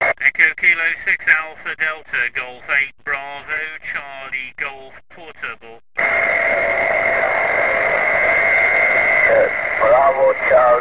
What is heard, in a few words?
qso